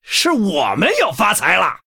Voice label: Male Human MIRROR GREETINGS, zh

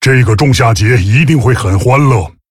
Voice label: Male Tauren, zh; FIRE FESTIVAL

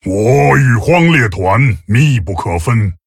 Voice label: Male Tauren Picked, zh

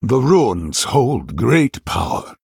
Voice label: Male Human Picked; en

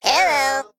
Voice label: Male Mech, ERROR GENERIC; AnnoyO

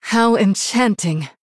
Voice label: Female Human Wow; en

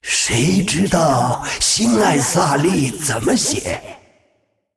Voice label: Male Naga; zh

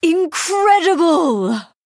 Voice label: Female Nightborne Wow

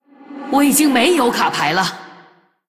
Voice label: Female Human No Cards, zh; JainaDeathknight

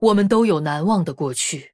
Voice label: Female Human Greetings; zh

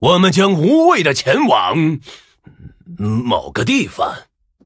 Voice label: Male Human Picked, zh

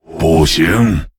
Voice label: Male Lich ERROR GENERIC, zh

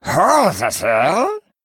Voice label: Male Murloc Oops; zh

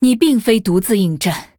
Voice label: Female Nightborne MIRROR START, zh

